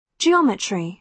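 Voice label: "geometry", British English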